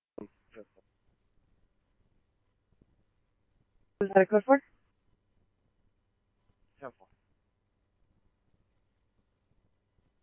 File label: scanner, Scottsdale Police